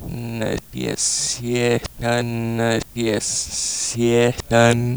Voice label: no tase no tase satan, Voice gibbrish

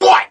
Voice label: what male; ooh ahh

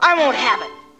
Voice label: "i won't have it", female; Voice

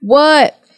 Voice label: "what" drag

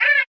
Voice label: "eh", cartoon

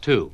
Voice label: "two" announcer; numbers and letters

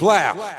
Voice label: "blaow", ooh ahh

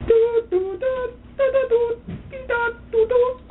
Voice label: doo doo dah doody dah dee dah, Voice gibbrish

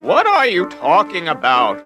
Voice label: "what are you talking about", cartoon; questions

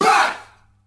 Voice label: "bah", group of men; ooh ahh

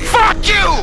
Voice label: "fuck you", male; expletives

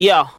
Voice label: "yo", Voice

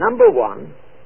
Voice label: number one male; Voice; numbers and letters

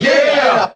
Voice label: "yeah", group of men

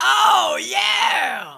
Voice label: "oh yeah", voice

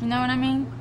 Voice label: female; know what i mean

